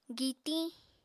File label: "gitiñ", Apatani